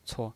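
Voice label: "cuo", Mandarin Chinese pinyin voice, neutral tone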